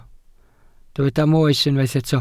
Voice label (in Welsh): "moes" Welsh